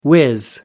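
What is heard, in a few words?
"whiz", British English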